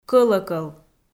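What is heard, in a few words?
"кӧлӧкӧл", Komi-Zyrian, female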